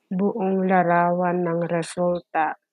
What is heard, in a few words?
Tagalog